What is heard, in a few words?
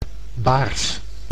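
"baars", Dutch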